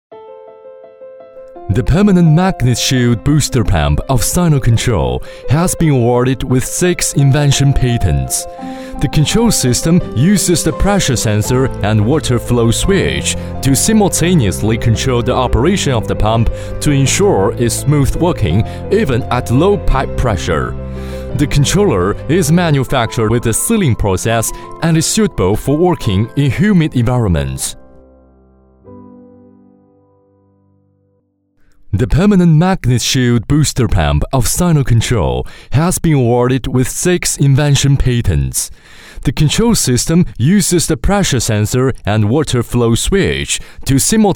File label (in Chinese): 男 英文 专题; Booster-Pump